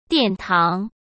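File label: "殿堂", Mandarin Chinese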